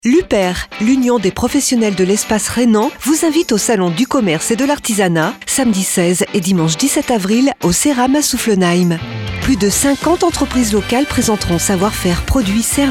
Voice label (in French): SPOT RADIO